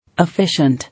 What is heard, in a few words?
"Efficient", English